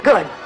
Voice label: "good", Voice